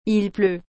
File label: "Il pleut.", French